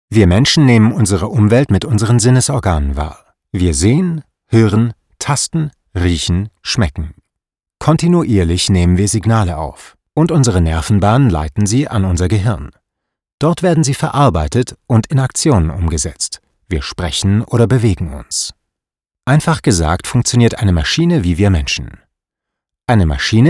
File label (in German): e-learning deutsch